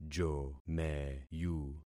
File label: "jomeyu", concat syllables; Affixing experiment